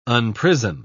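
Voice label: "unprison", British English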